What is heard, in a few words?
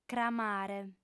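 "cramare", female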